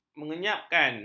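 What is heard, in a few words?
"menyengapkan", Malay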